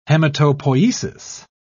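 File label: "hematopoiesis", British English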